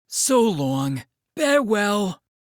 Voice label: so long sad; monosuke

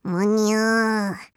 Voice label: annoyed

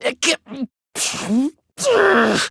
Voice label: frustrated; teruteru

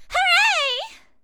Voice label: excited

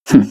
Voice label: thinking; kidgami

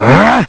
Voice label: anger; neku

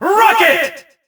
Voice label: "rocket!" announcer; sonic; colors

